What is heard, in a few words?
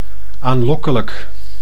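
"aanlokkelijk", Dutch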